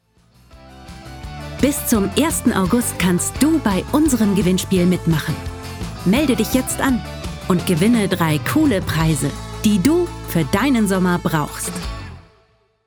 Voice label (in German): Kids Werbung Deutsch; KIKA